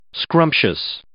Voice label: "scrumptious", English

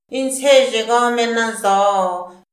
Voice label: "in ts'ezhego me na zo'o", Mazahua; INALI; AprendeMazahua

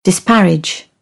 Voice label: "disparage", British; AmericanBritish

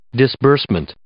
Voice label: "disbursement", English

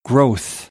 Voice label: "growth", American; oʊ - əʊ

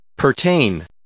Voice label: "pertain", English

